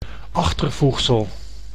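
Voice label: "achtervoegsel", Dutch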